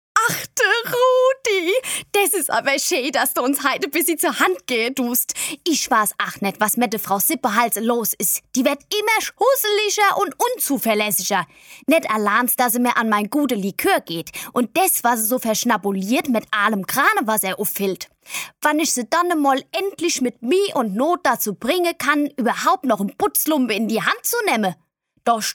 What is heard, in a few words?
Hessisch